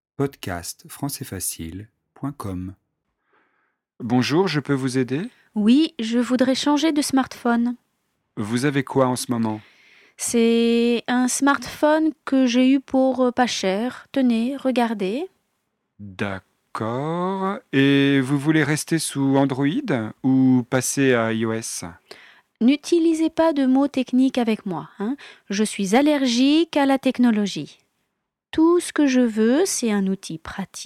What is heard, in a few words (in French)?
dialogue telephone mobile